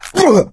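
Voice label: boomer reaction, voice, male; Left 4 Dead 2; coach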